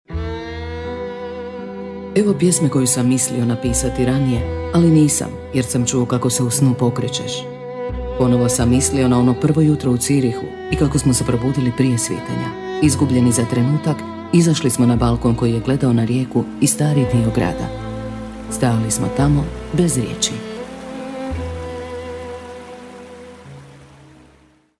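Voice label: Croatian, female, narration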